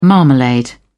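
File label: "marmalade", British English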